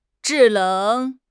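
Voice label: "zhi4 leng3", Mandarin Chinese; HSK; HSK4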